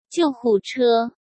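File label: "救护车", Mandarin Chinese; hsk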